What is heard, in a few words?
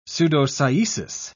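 "pseudocyesis", British English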